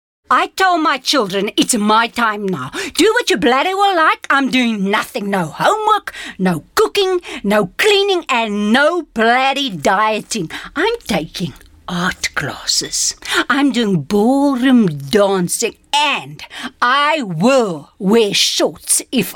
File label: Character Housewife